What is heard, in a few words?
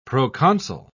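"proconsul", English, British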